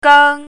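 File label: "gang1", Mandarin Chinese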